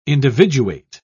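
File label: "individuate", British English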